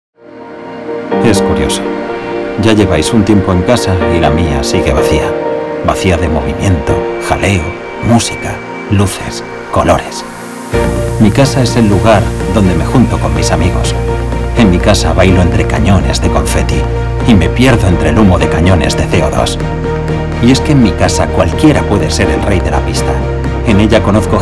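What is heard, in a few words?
Spanish, male, commercial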